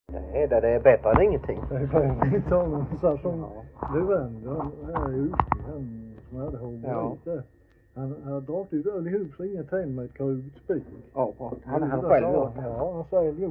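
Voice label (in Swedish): Glimåkra Skåne, dialekter svenska sagor; Folkminnen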